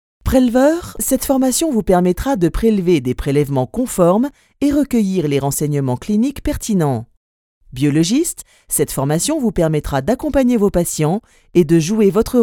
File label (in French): ELEARNING Explicatif droit; BACTERIO